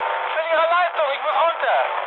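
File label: German damaged engine mood med, speech; War Thunder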